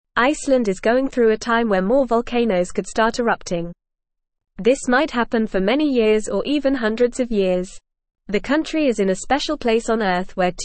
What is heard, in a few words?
English Lower Intermediate FAST Reading; Icelands Volcanoes Waking Up A Big Mystery